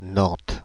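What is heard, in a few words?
"Nantes", French; Fr-Nantes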